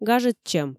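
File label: "гажӧдчӧм", Komi, female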